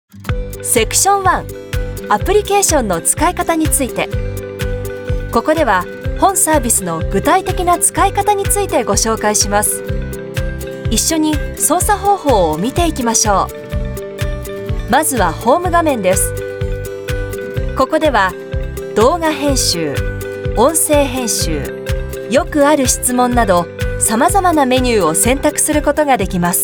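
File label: Japanese female Elearning Music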